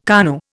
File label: "KANU", Indian